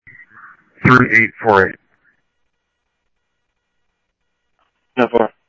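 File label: police department, scanner audio trunk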